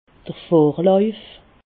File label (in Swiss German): "vorlauif", alsace